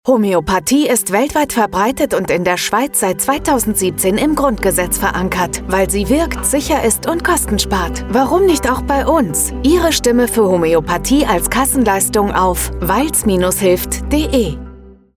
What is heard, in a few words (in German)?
Funkspot; Naturheilpraxis Kretzdorn; aktiv werden homoeopathie gehoert in die gkv